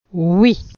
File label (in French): "oui", phonetique